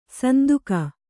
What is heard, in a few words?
"ಸಂದೇಗ", Kannada